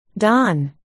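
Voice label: "don", spoken word, articulation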